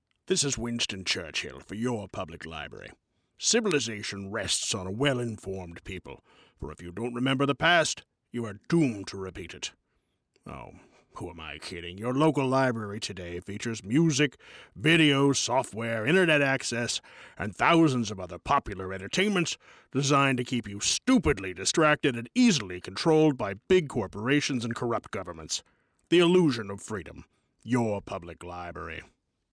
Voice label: PSA Vocal; LIBRARY PSA (Winston Churchill); Medium British